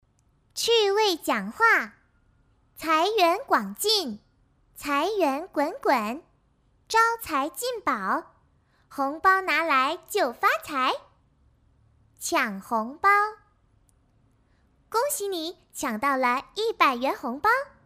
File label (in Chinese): 童声 卡通猴